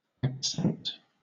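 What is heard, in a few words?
"accent", British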